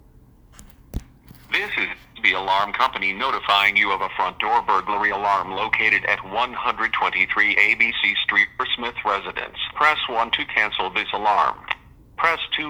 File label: Open Voice Recording; Southwest Dispatch